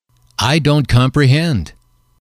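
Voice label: "I dont comprehend", English, male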